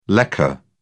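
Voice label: "lekker", British English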